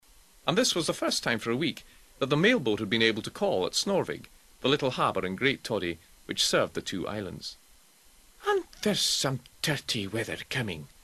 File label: Audiobook